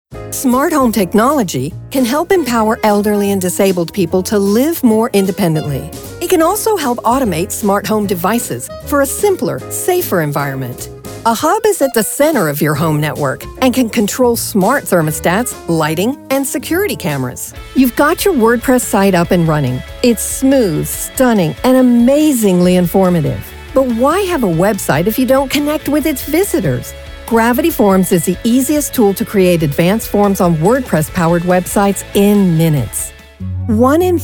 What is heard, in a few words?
40s Female Explainer